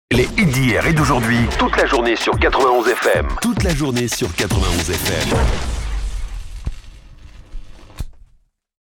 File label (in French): Jingle Hits d'hier et d'aujourd'hui; Transitions